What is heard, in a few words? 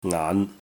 "ngaan5", Cantonese